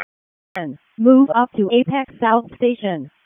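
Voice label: Wake County EMS ALERT, p25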